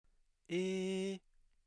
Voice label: extra-high tone